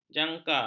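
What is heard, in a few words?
"janka", Malay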